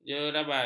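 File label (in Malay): "jerabai", Malay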